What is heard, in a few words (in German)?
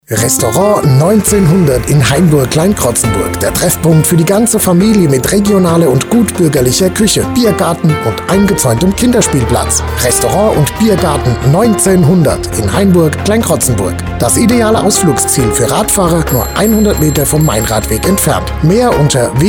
Radiospot